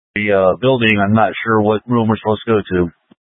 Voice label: Fire Dispatch; K8 - FDCOM 8 - South; C- Chandler

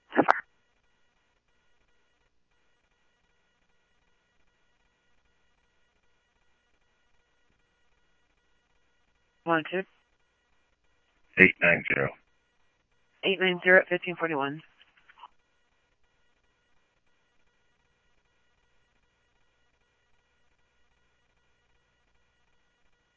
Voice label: Phoenix PD, scanner audio

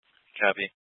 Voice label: Mesa PD Dispatch; Fiesta

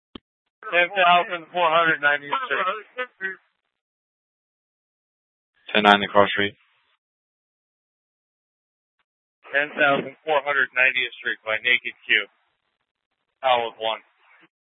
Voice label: Dispatch; Scottsdale

